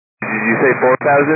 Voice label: 122 725MHz, scanner radio